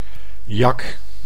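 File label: "jak", Dutch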